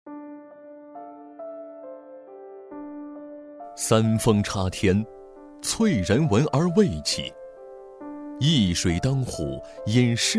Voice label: 纪录片 人文历史, 男国; 黄坛村

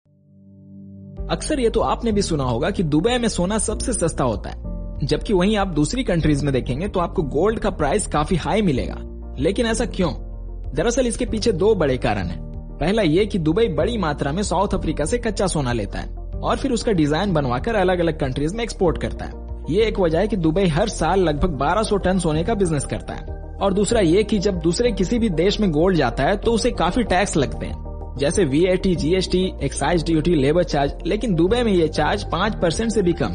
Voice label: 乌尔都语男 外语 小语种 年轻

